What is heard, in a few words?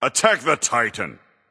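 vox attack male; smite; zeus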